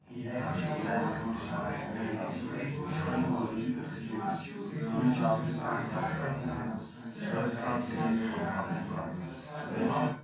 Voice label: male4 380ms 50cm, underdetermined; SiSEC11

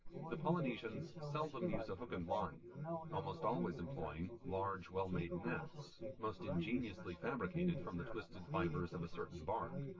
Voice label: male synth conv sim; SiSEC10; underdetermined

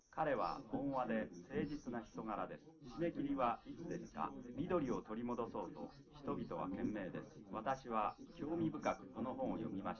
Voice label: male liverec 250ms 5cm; SiSEC10; underdetermined

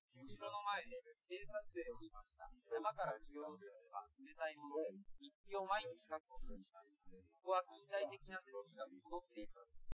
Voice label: male, live rec; SiSEC08; SiSEC underdetermined

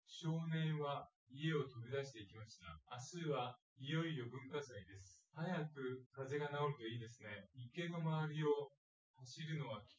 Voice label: test male synth conv, underdetermined; SiSEC10

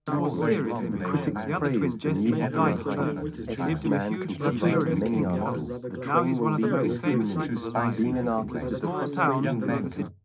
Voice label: male mix; SiSEC11; underdetermined

